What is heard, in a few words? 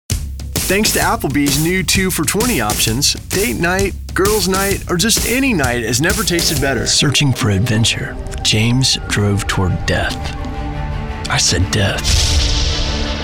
commercial